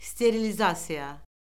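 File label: "sterilizasiya", Azerbaijani; Orfoqrafiya Lüğəti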